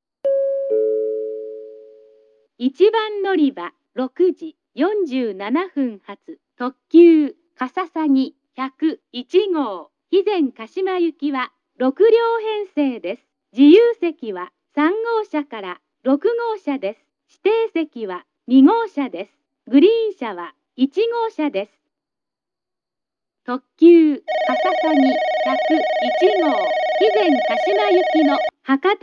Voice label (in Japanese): announce; jrkyushu; hizenkashima